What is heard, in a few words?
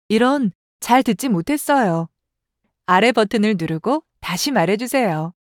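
wake up error Korean; Samsung Galaxy S10; Bixby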